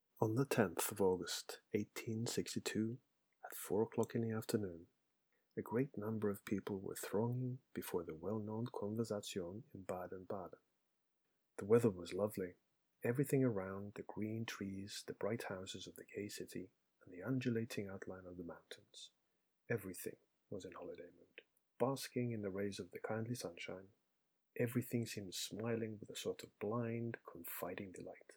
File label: voice over english